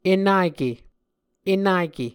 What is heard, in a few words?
"enake", muscogee